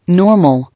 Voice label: "Normal", English